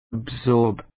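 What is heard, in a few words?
"absorb", British English, male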